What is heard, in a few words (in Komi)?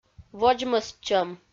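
"водзмӧстчӧм", Komi, female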